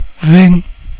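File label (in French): "vingt", French; cat numeration doigts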